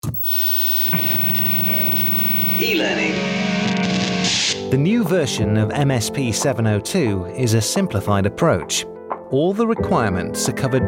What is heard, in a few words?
elearning